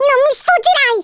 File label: "I'll get you", Italian; Worms Armageddon